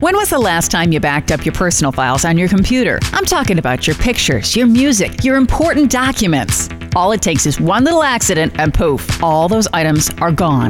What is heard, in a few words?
radio ad; backblaze